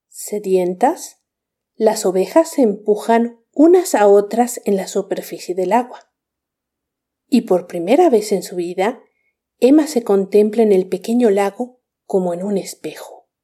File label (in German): spanisch